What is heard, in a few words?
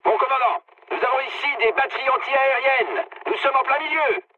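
French damaged aa mood med; War Thunder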